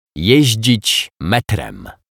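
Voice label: "jezdzic metrem", Polish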